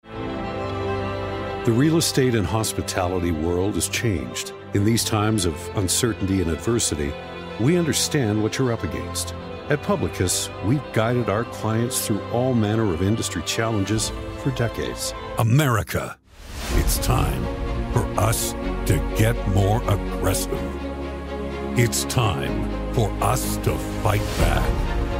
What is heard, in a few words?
english canadian corporate videos